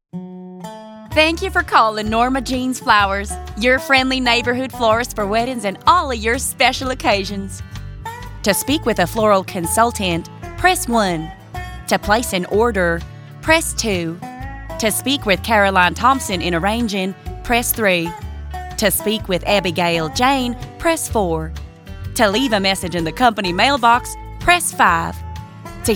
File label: Accent American Southern